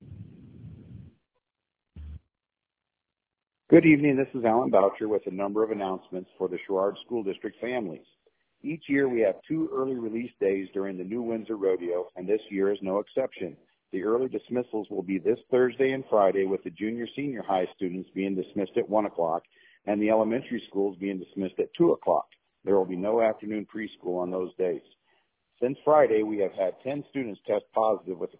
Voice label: voicemail